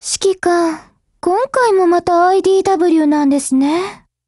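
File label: build over, Japanese; GF Alarm; Carcano1938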